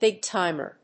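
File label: "big-timer", English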